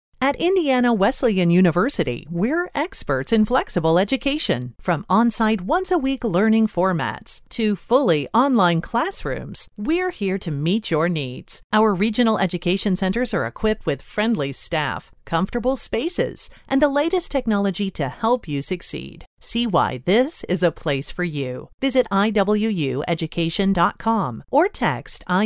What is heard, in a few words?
Commercial Demo